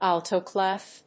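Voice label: "alto clef", English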